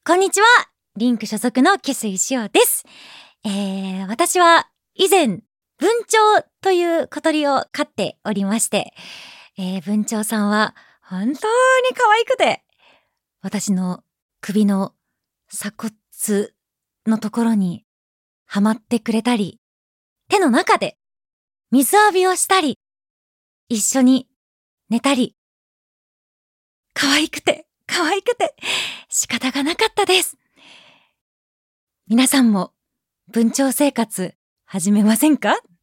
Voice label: free talk